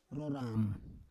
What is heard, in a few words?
"roram", Roon